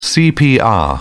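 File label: "cpr", British English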